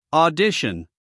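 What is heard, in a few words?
"audition", American English, male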